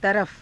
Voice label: "tarafa", hindi

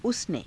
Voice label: "usane", hindi

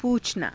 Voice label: "puuchanaa", Hindi

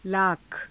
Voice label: "laakha", Hindi; लाख; 十万

